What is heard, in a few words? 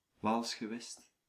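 "Waals Gewest", Dutch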